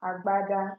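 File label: "agbada", Nigerian Pidgin, female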